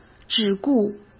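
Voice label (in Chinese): "只顾", Mandarin Chinese; hsk; chinese-flashcards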